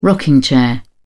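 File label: "rocking chair", British English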